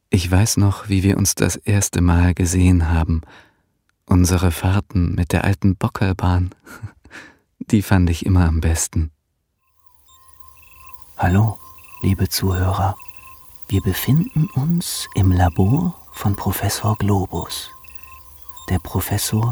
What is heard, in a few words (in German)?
sanft nah authentisch nachdenklich